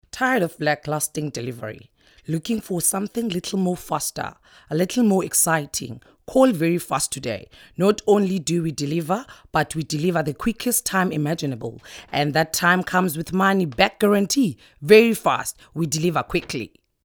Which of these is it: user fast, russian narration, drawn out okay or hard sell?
hard sell